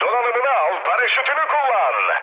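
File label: Turkish bailout, mood med, speech; War Thunder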